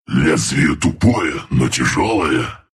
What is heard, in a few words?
attack, ru